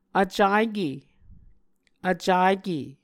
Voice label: "vcake", Muscogee

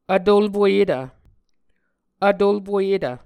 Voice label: "vtolpoyetv", Muscogee

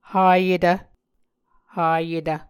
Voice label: "hayetv", Muscogee

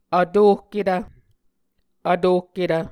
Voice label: "vtohketv", Muscogee

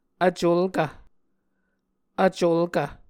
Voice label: "vculkv", Muscogee

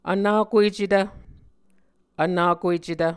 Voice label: "vnakuecetv", muscogee

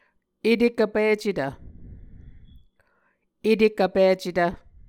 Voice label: "etekvpicetv", Muscogee

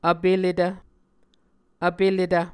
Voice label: "vpeletv", Muscogee

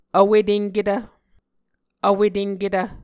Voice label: "vwetenketv", Muscogee

